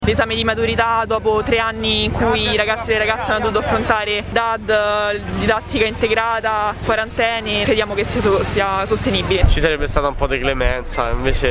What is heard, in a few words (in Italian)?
VOCI STUD